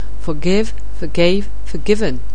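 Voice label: "forgive", English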